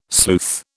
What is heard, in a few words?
"sleuth", British